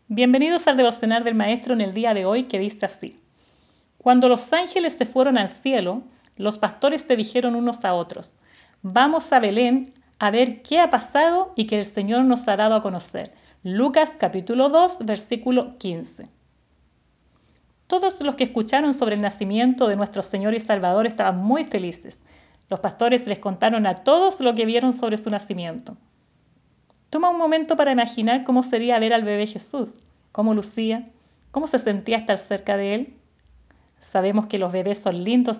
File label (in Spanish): devocional